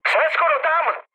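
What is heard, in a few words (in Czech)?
Czech near waypoint, mood medium; speech; War Thunder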